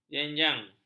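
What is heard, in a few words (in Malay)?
"jenjang", Malay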